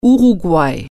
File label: "uruguay", German